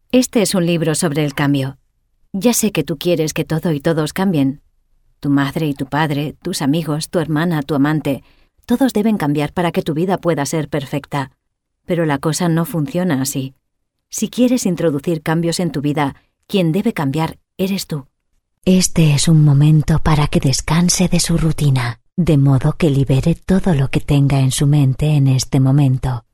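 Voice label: Spanish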